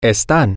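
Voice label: they are, Spanish, male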